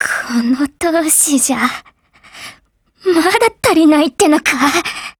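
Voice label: BREAK, Japanese; Mk12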